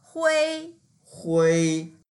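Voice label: "hui1" Mandarin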